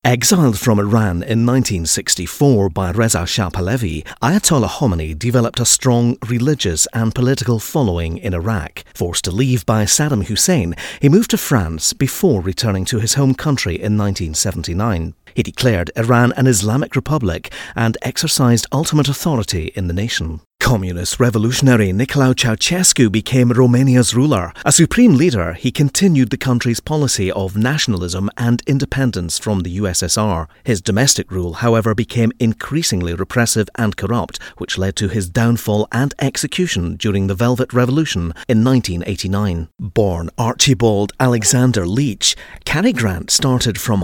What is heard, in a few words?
documentary